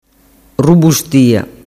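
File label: "rubustia", Sicilian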